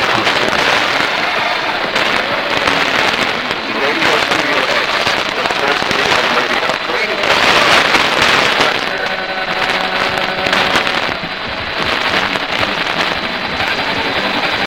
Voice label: RADIO 1485 kHz; RADIO STUDIO X